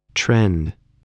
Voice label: "trend", voice